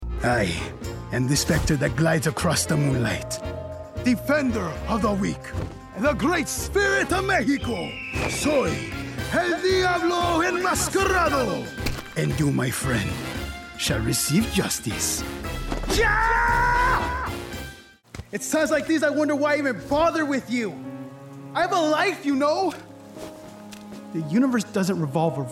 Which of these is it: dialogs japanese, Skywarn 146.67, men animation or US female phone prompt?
men animation